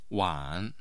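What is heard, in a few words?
"wan3", Mandarin Chinese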